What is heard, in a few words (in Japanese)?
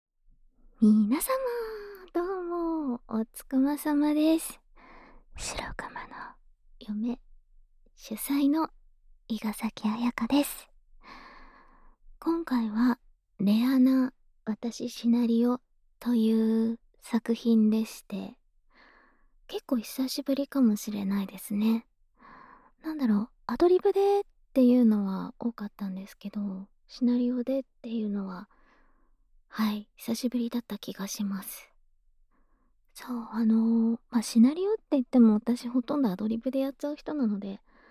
ピリ辛フリートーク, ASMR; 甘々のあとはピリ辛フリートークで負けちゃう？